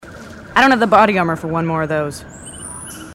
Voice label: female marines